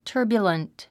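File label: "turbulent", English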